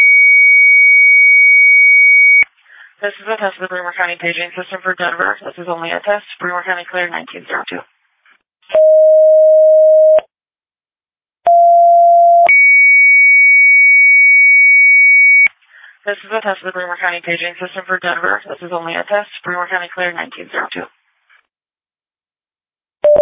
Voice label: Fire, dispatch